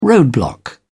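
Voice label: "roadblock", British English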